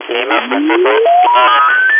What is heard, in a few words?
single sideband voice; ground demodulation ladder; ESA